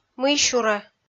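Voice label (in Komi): "мый-сюрӧ", Komi, female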